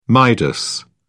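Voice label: "midas", British English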